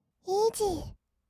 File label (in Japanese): "イージー", kawaii voice; ASMR; 色々なワンショット